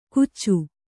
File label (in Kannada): "ಗುಚ್ಚು", Kannada